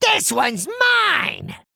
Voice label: Male Goblin Attack